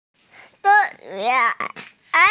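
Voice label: "gong1yuan2", Mandarin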